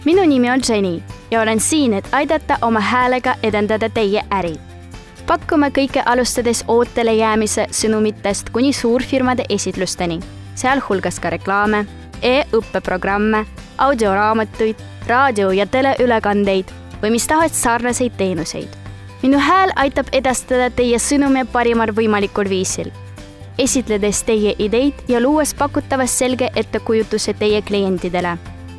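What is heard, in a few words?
Estonian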